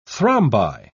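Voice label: "thrombi", British English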